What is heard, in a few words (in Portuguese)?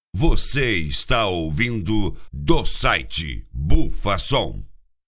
vinhetas locutor; Bufa Som